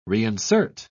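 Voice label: "reinsert", British English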